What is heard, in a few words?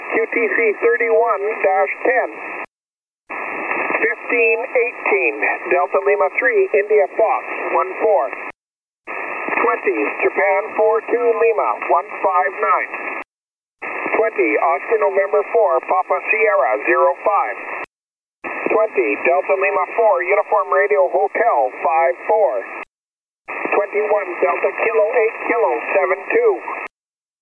ssb, contest